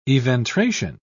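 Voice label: "eventration", British English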